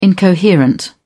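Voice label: "incoherent", British English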